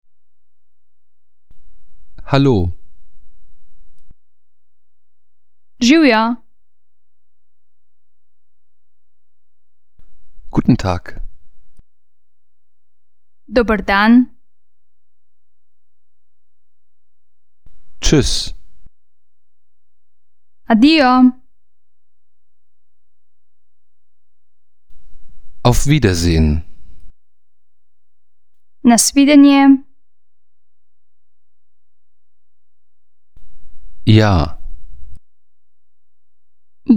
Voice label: slowenisch

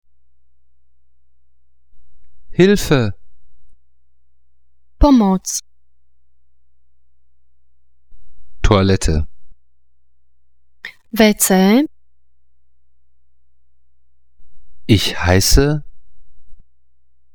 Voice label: slowakisch

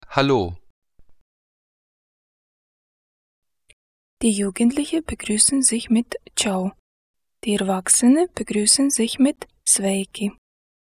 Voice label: lettisch

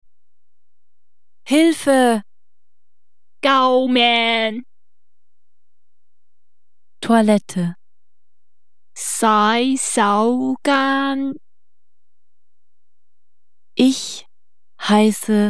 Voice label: kantonesisch

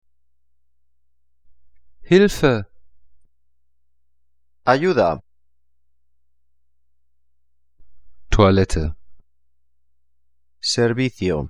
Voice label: spanisch

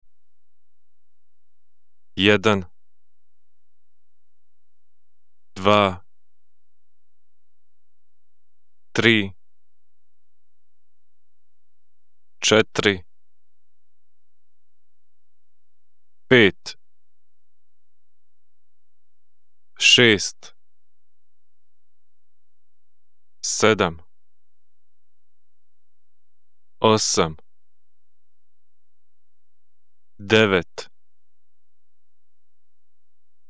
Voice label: bosnisch

